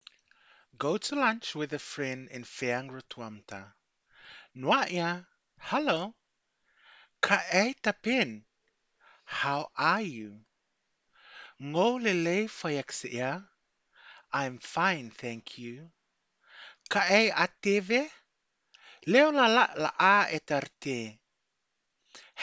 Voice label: Go to lunch with a friend in Rotuman; 2025 pacific language weeks